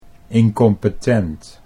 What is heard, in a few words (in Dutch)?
"incompetent", Dutch